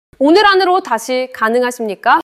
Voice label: neutral, monologue